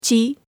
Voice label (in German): zaehlen japanisch